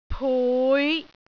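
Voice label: "pui5", Cantonese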